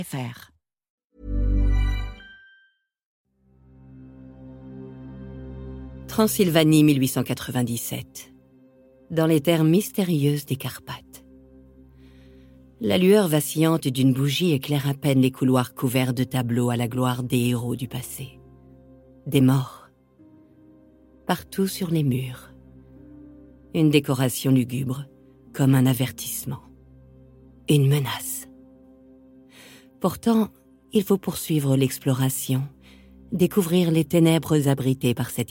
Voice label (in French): narration; dracula